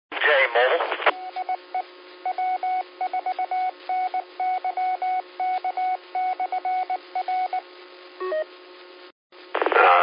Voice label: qso rec simplex logic